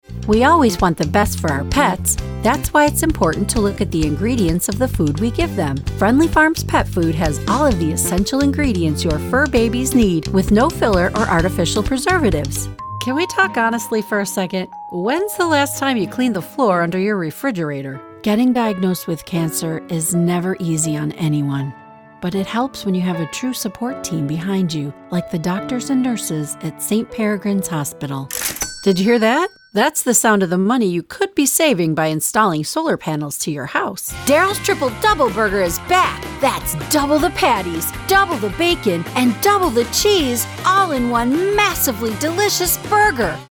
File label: Commercial VO